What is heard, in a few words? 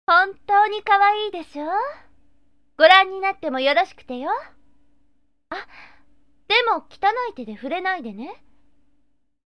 sample girl